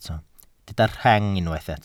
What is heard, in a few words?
"rheng", welsh